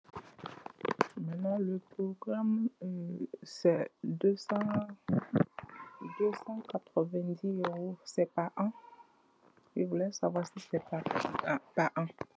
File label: voice message